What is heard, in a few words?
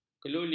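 "keluli", Malay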